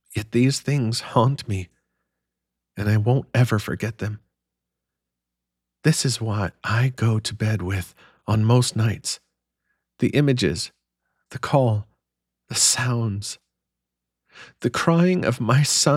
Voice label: Female Voice; feminine voice